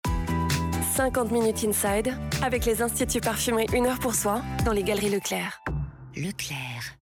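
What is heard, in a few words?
female, French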